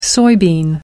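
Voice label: "soybean", English, British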